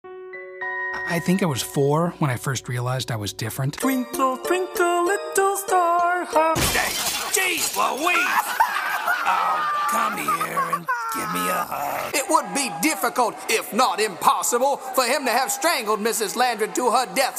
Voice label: Characters, voiceover artist